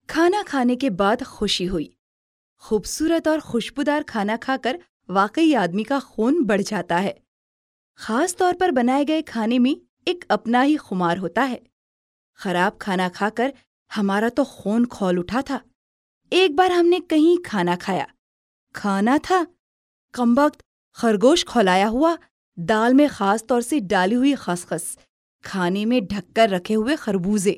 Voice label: female urdu voice over narrative